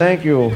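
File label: "thank you", male voice; cosby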